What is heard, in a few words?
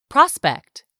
"prospect", English; 3000 words